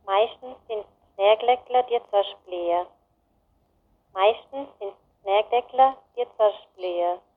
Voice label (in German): Mundart; tannheimertal